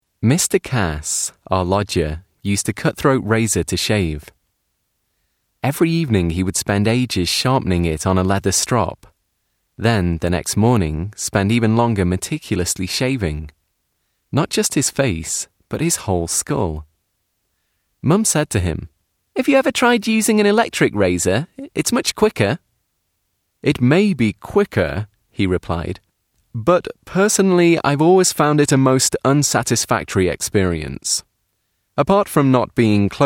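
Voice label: Voice Actor Audiobook, Male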